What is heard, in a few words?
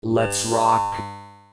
Robot Lets rock